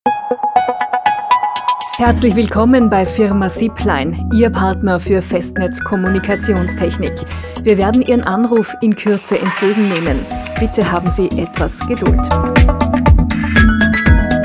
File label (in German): mit Musik